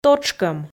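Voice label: "тотшкӧм", Komi, female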